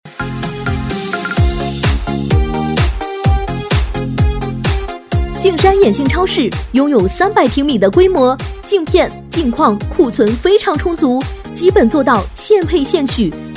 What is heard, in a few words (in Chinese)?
女 促销; 镜山眼镜